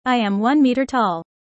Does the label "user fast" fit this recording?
Yes